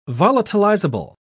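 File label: "volatilizable", British English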